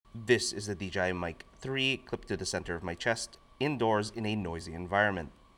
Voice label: DJI mic 3 noisy indoor